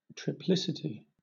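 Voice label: "triplicity", British English; pronunciations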